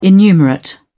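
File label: "innumerate", British English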